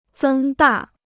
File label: "增大", Chinese